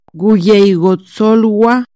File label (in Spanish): Con permiso, Chontal Oaxaca